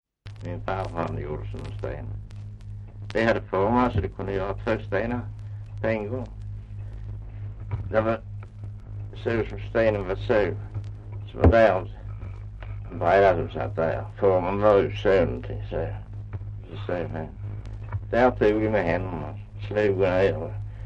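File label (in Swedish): Östra Ingelstad Skåne, dialekter; Folkminnen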